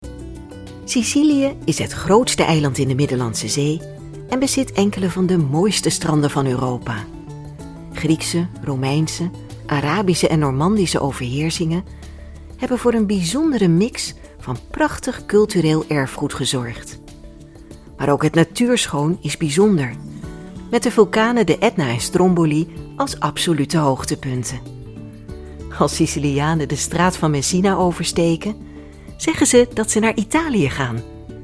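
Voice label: Dutch, Commentary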